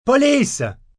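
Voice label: Police French, distress, help, male; Calls; Exclamation; Voice Box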